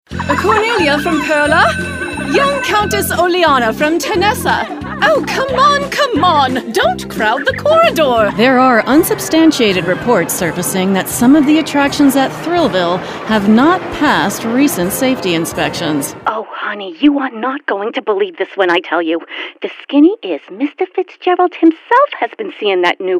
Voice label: Animation